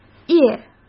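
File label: "夜", Mandarin Chinese; chinese-flashcards; hsk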